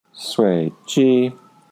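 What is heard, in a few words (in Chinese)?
"suiji", Mandarin Chinese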